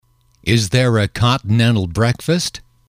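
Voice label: "is there a continental breakfast", English, male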